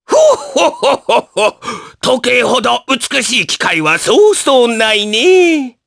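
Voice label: vox dia Japanese; Oddy; kingsraid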